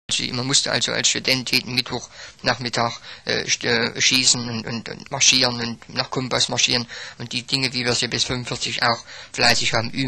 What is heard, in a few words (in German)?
Thueringisch Westthueringisch; Mitteldeutsch